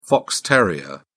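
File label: "fox terrier", English, British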